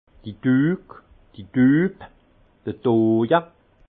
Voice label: "dug", alsace; douves douelles; vin

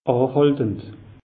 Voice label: "ahaltend", alsace; meteo

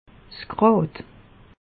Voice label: "grad", alsace; meteo

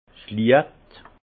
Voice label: "liecht", alsace; vin; lumière

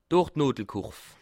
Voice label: "hoornodelkurv", alsace

